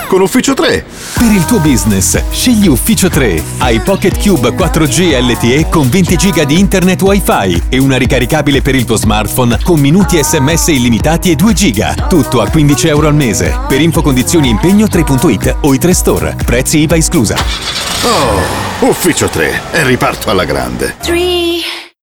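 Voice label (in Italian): meccanico, spot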